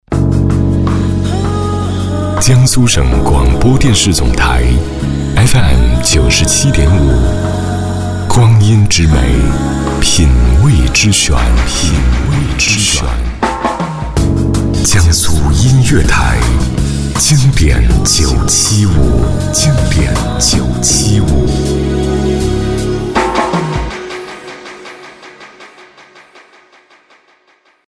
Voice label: 广播片花 磁性空灵感; 江苏音乐台

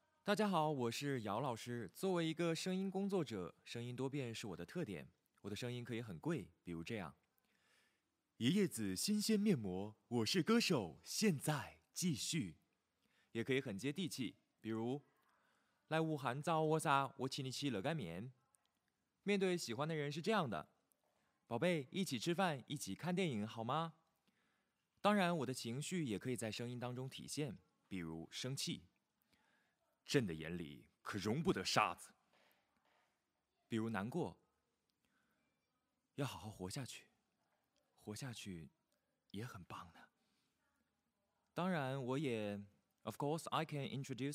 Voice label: 多种风格录音

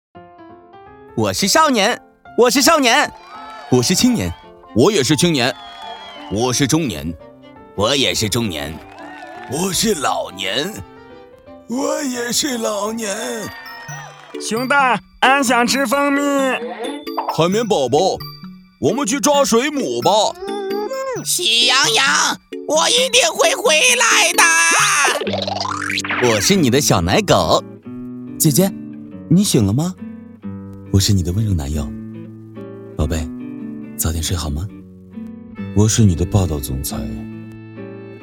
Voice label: 多角色

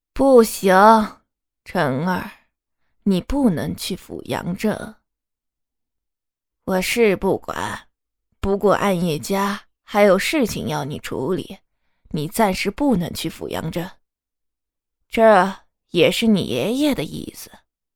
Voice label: 老年音

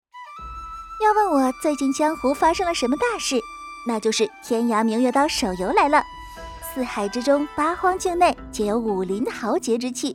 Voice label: 手游口播; 天涯明月刀

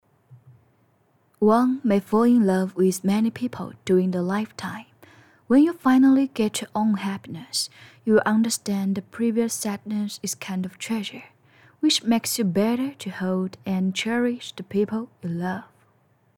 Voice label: 英文

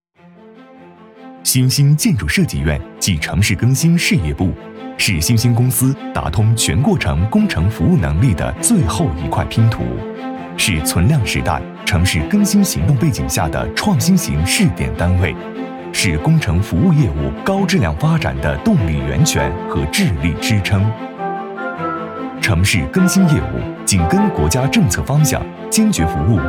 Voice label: 科技企业宣传

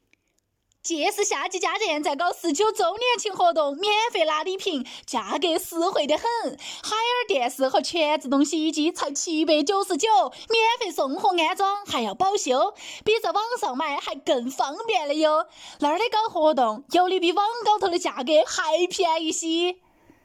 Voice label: 广告四川方言女声; 重庆经广片花 滚动吧朋友圈

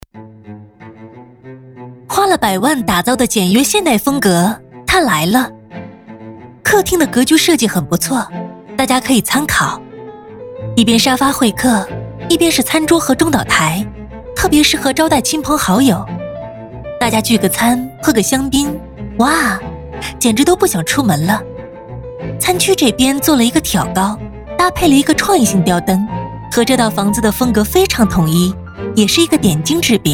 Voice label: 家居解说轻松愉悦

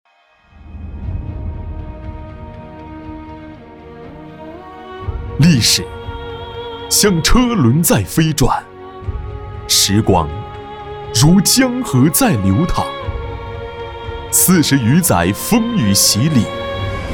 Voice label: 企业宣传

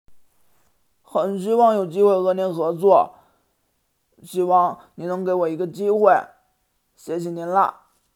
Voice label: 奶狗音

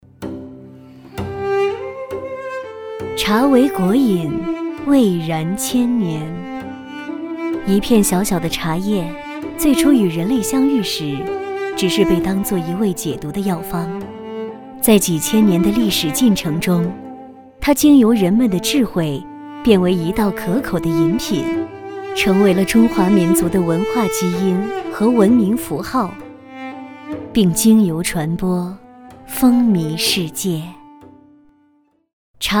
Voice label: 讲述 早茶起源

